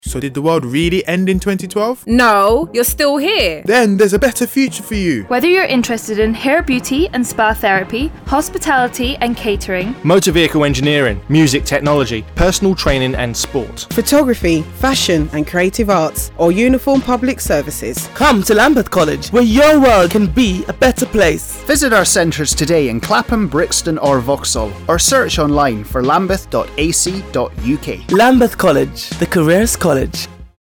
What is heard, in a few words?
advert; LAMBETH; VOICE OF YOUTH